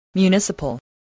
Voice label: "municipal", voice